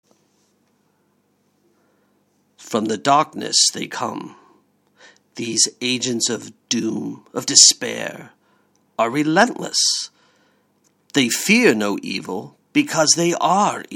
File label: Comic VO